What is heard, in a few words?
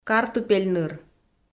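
"картупель ныр", Komi, female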